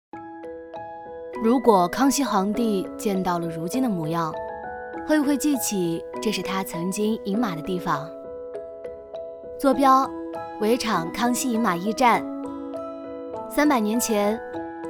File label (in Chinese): 女 旅游专题 抖音风; 康熙饮马驿站